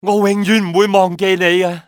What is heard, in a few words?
thank, male; XBOX; civ